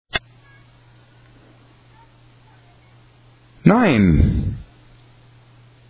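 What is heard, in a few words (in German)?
"Nein", German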